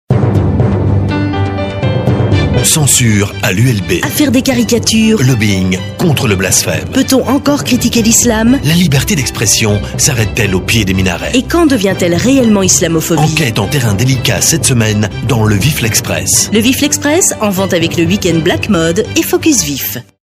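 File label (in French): Belgian French, male, commercial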